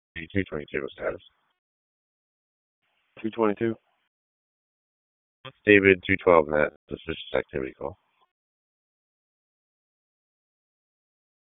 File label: Police Northeast District Disp, p25